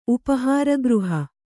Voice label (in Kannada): "ಉಪಹಾರಗೃಹ", Kannada